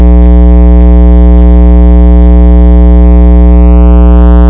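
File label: "jab", spoken word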